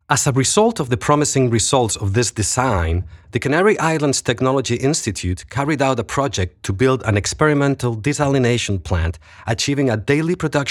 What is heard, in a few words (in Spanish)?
Voz Off